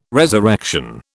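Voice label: "resurrection", British English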